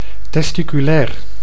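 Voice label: "testiculair", Dutch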